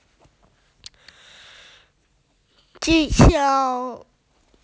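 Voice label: "balloon", Mandarin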